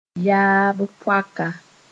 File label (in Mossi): "yaab-poaka", moore; grandmother